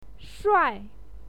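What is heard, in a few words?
"shuai4", Mandarin Chinese pinyin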